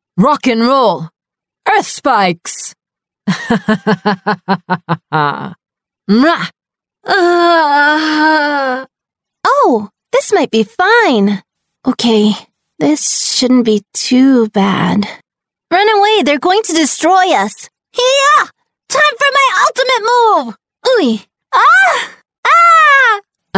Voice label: Brazilian Portuguese Video Game, Brazilian Portuguese Female